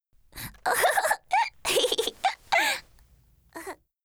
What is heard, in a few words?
FEMALE Emotion